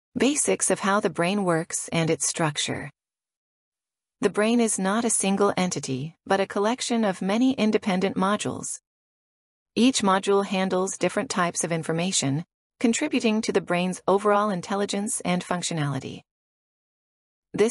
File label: Female, Long Form; A Thousand Brains